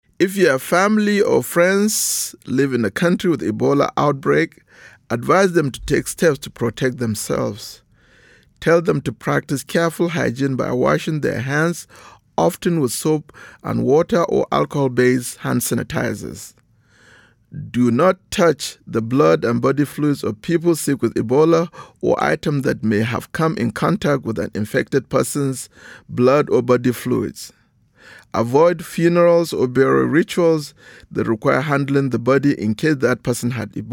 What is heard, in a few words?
English PSA Message; vhf; ebola